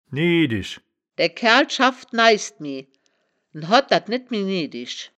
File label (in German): "niedisch", wittlich